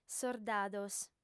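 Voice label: "sordados", female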